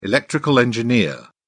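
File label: "electrical engineer", British English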